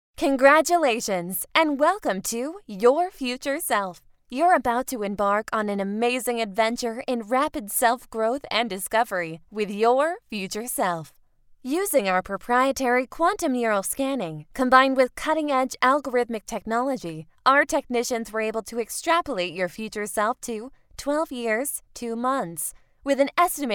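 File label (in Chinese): 外籍女英_外语_美式英语_专题_时尚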